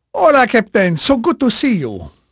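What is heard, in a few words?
Spanish male, voice; Pirates Of The Caribbean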